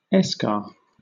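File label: "eschar", British English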